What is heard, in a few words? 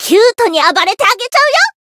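Voice variable chant, Japanese; myrus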